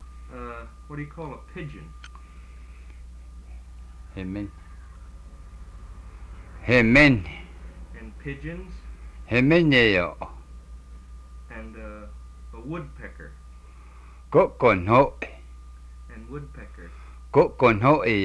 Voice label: Cheyenne